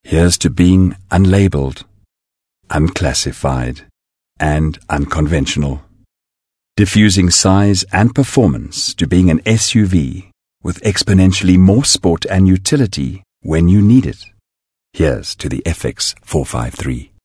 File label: English Softsell